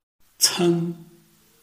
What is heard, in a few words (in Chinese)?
cen1, Chinese